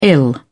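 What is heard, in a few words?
"ill", British English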